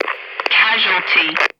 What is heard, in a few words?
American Female Hit Made